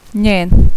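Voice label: "njen", Serbian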